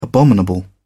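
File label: "abominable", British English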